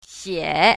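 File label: "xie3", Chinese pinyin, south, female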